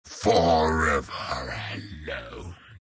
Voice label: troll death; troll warlord